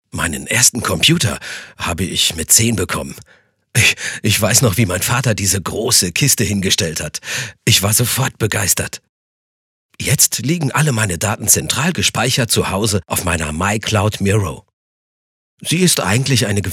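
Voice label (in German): Rolle Mann erzählt nett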